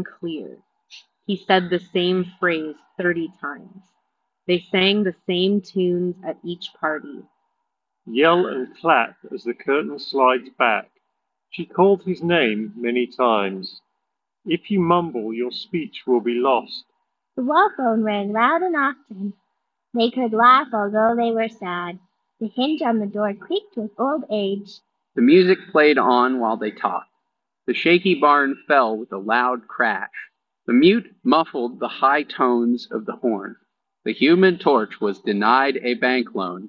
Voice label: Anker Soundcore Space Q45 Street microphone sample